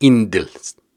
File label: Viking Vocal Syllables and Imaginary Words, Hard